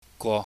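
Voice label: "gwo", Cantonese, mid level tone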